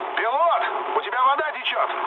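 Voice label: Russian damaged water mood low, speech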